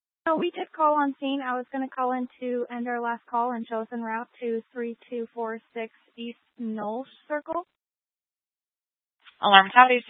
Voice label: Fire Departments Mesa Simulcast; TOPAZ

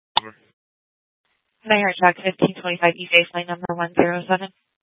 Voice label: Tempe PD, scanner; A04 South

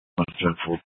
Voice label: PD Dispatch

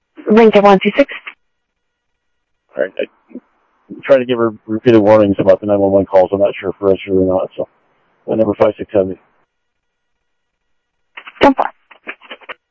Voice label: Sheriff scanner audio

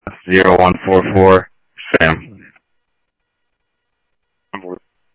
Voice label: Police, scanner audio trunk

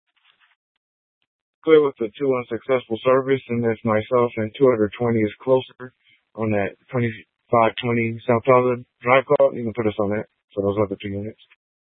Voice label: scanner, Tempe PD; Rgnl Wireless Co-op (RWC)

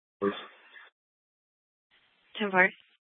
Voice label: Dispatch, Mesa PD; TOPAZ Regional Wireless Cooperative (TRWC) - Mesa Simulcast; 4 Superstition Dispatch

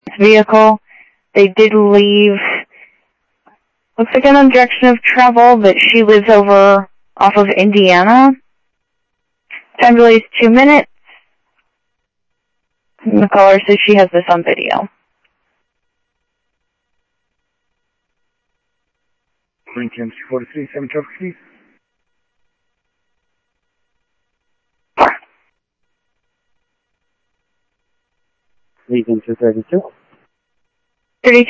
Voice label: Sheriff-WestCnty, scanner audio

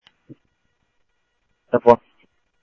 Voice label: Scottsdale Police, scanner audio; H-Scottsdale

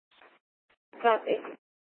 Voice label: Court Security Dispatch, scanner

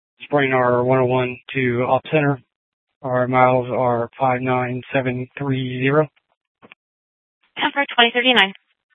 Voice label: Sheriff, scanner P25

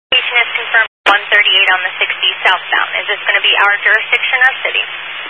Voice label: Highway Patrol, Phoenix Metro West Area, scanner

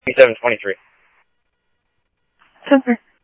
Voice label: Phoenix PD, scanner audio

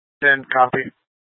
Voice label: Light Rail Emergency, scanner; Channel 6; Simulcast F- Tempe

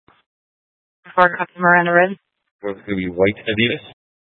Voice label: Sheriffs Office, scanner

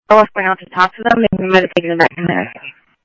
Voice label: Police, scanner; H-Scottsdale; ScottsdalePolice

